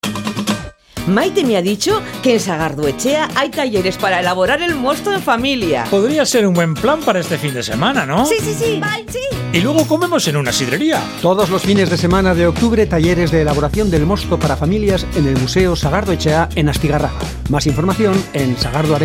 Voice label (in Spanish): Cuña Radio; Euskadi